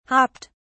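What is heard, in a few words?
"hopped", British English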